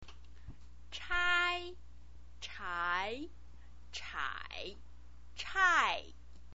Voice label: "chai", chinese pinyin